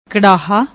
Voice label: "krraha", Punjabi; ਕੜਾਹਾ

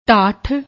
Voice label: "dhath", Punjabi; ਢਾਠ

